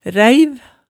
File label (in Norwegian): "ræiv", Hemsedal